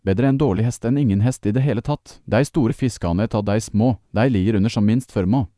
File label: norwegian, spoken language